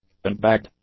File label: "unbagged", English; adjectives